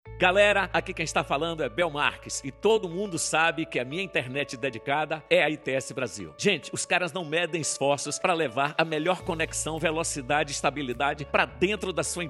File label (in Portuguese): spot